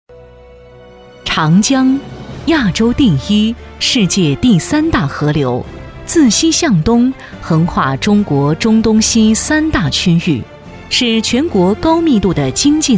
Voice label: 专题 大气; 自由贸易区

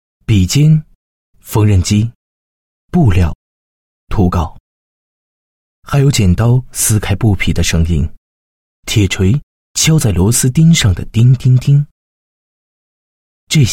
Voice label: 男 自白 意大利手工鞋宣传

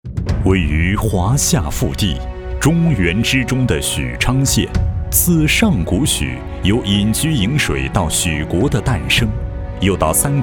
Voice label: 男 企业工作 产业集聚区创建市级文明单位工作配音; 许昌尚集产业集聚区创建市级文明单位工作配音

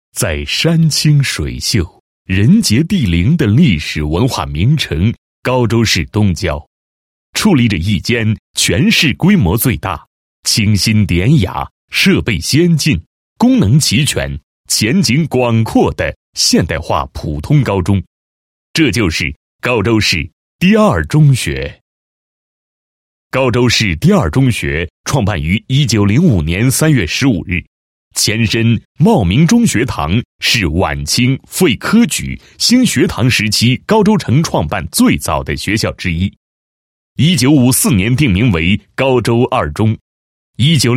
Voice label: 男-学校-110周年校庆视频稿, 配音案例; 州二中110周年校庆视频稿

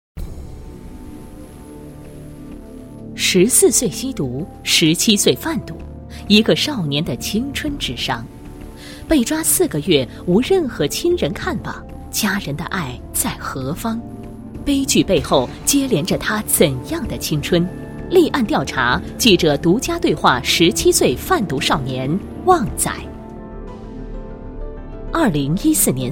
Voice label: 国语女声配音，法制栏目

